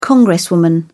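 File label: "congresswoman", British English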